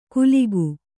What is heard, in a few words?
"ಕುಲಿಗು", Kannada